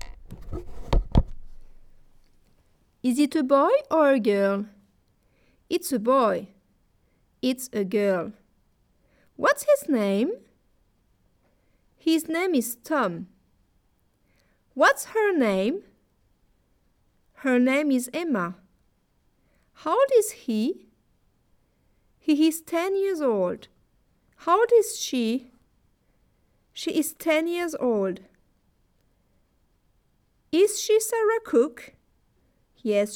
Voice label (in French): discours anglais